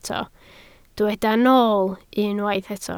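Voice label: "nool" Welsh, spoken word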